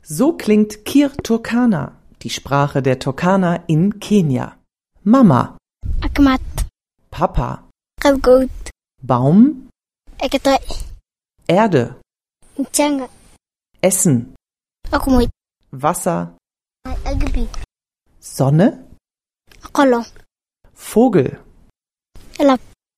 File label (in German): so klingt Turkana; kiturkana